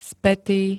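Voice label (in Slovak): "spätý", Slovak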